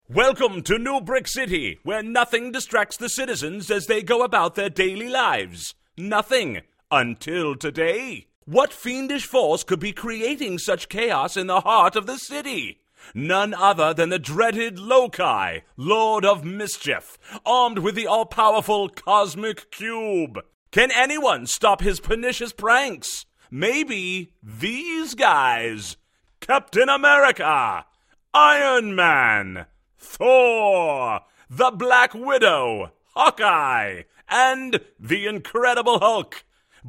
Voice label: Superhero